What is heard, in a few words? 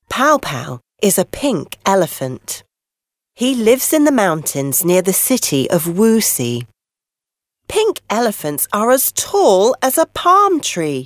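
British English female children; GB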